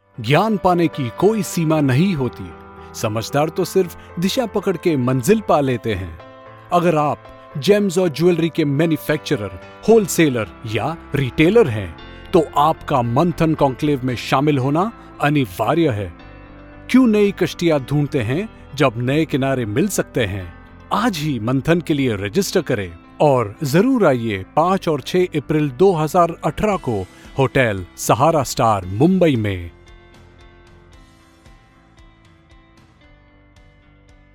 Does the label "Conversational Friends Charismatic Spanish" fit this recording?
No